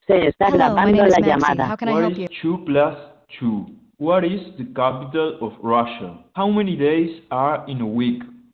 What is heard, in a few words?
english phone audio; from vapi